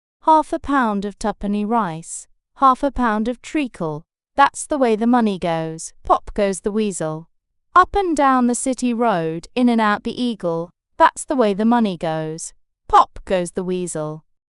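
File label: british female British, classic rhymes; Pop Goes the Weasel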